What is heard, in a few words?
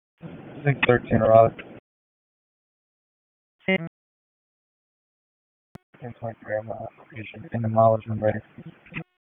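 Police District Dispatch, p25; Raleigh Police Southwest District Disp; wake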